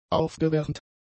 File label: "aufgewärmt", German; adjectives